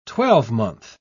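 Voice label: "twelvemonth", English, British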